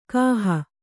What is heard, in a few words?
"ಗೊಹೆ", Kannada